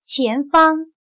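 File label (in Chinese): "前方" Mandarin Chinese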